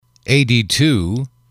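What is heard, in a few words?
eighty Two, english, male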